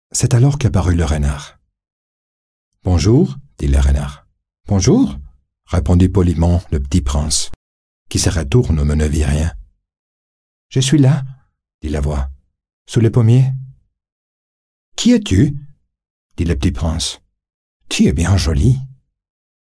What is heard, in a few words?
French